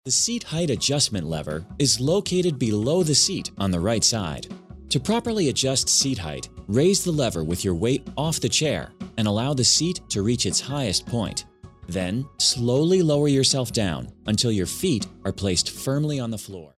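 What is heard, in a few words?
American English, male, e-learning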